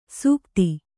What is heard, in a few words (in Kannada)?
"ಸುಗತಿ", Kannada